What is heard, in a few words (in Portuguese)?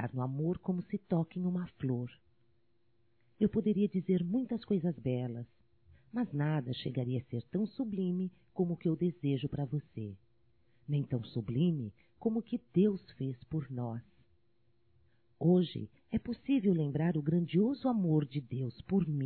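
NATAL IRMA, feminino; Telemensagens 2017; Natal & Ano Novo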